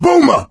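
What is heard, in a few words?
warn boomer, voice, male; Left 4 Dead 2; coach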